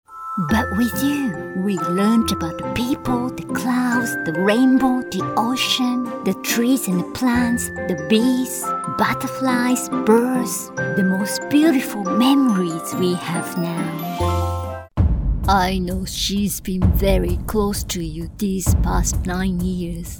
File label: Anime English